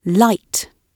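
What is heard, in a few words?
"light", British English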